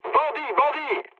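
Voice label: French enemy detected mood low; War Thunder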